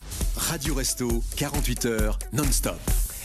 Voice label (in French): radio; restos 48h non stop